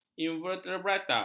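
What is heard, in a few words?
"invertebrata", Malay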